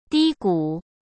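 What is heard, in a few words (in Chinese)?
"低谷", Mandarin Chinese; hsk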